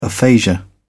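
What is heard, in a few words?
"aphasia", British English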